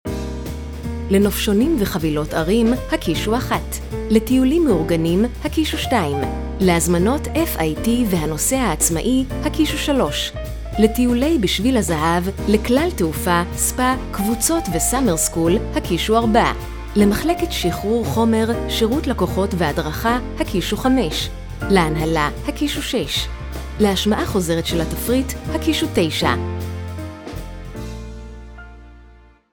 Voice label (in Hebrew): Mix, קריינות